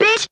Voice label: "bitch", expletives

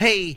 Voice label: "hey", voice

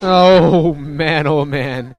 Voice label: "oh man oh man", expletives, g-rated swears

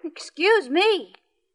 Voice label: "excuse me" girl, Voice; expletives

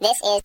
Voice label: this is, cartoon

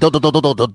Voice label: duh dud duh duh duh, Voice gibbrish

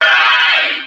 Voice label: hey FILTERED

